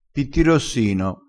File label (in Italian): "pittirossino", crespinese